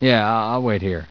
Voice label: "I'll wait", conscript; hl2